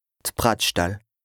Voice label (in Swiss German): "d'Bratschdall", Alsace, BAS RHIN; bretzel; lexique indispensable